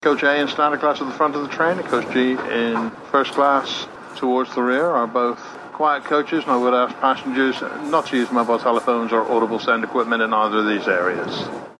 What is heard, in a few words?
quiet coach announcement